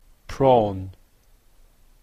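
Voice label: "prawn", Canadian English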